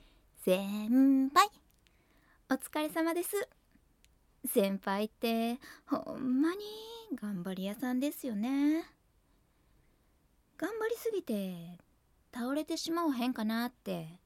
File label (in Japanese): 関西弁